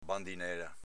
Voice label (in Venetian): "bandinela", Veneto